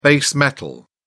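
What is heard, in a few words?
"base metal", British English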